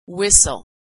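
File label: "whistle", American English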